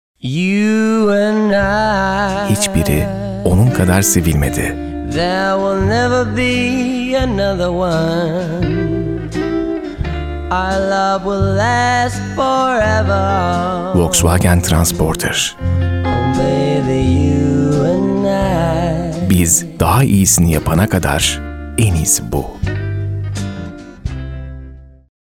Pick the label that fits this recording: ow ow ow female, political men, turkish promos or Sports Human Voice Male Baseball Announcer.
turkish promos